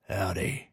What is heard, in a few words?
trader male like greeting